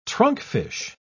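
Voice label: "trunkfish", British English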